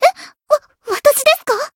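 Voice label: Voice variable chant, Japanese; yuna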